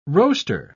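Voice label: "roaster", British English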